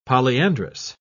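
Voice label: "polyandrous", British English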